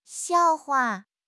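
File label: "xiao4 hua5", Mandarin Chinese; HSK; HSK4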